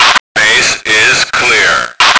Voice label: male clear; lmctf

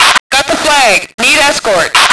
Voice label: fem escort; lmctf

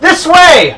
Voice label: male follow me; lmctf

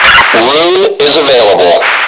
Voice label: "rune", male; lmctf

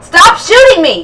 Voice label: fem stop shoot; lmctf

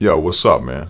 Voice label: male hi, voice; lmctf

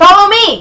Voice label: fem follow me, voice; lmctf

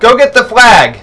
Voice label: male get flag, voice; lmctf

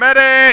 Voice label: yell save me

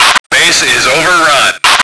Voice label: "overrun", male; lmctf

